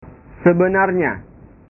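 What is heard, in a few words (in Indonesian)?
"sebenarnya", Indonesian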